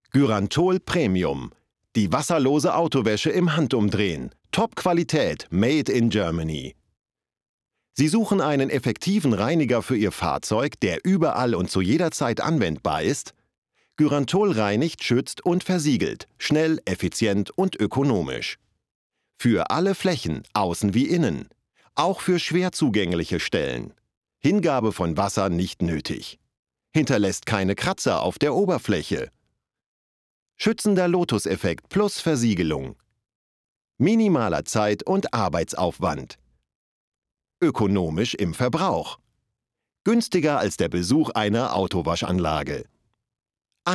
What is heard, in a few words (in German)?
Werbung; Gyrantol